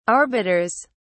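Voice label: "arbiters", British English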